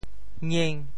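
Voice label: "ngieng5", Teochew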